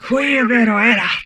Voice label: humanoid female; Stellaris; humanoids